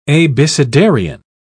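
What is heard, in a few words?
"abecedarian", British English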